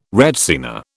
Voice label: "retsina", British